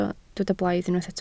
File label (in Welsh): "blaidd", Welsh